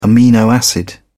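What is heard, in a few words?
"amino acid", English, British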